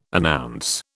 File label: "announce", British